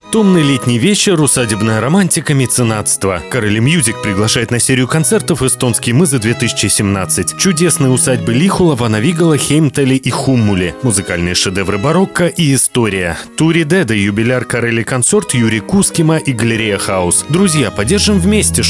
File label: rus; corelli